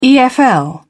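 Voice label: "efl", British English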